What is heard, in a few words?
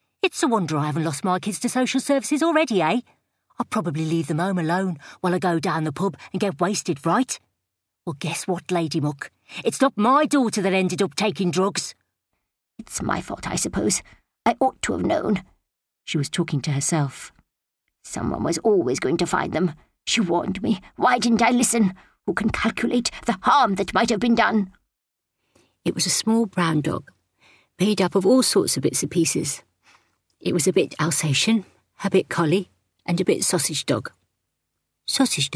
Acting Audiobooks